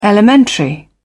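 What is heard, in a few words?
"elementary", British English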